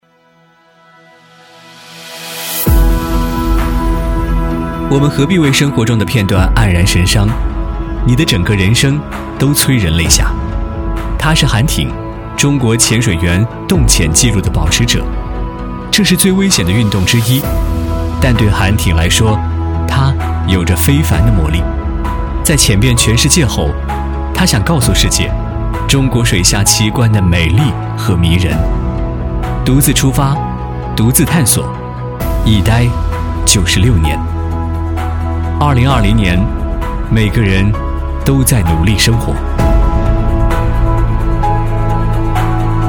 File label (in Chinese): 男,节目预告 极限潜水家